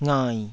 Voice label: "ngai3", Taiwanese

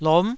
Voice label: "lom9", Taiwanese syllable

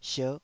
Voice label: "sierh5", taiwanese Syllables

